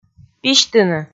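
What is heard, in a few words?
"письтыны", Komi, female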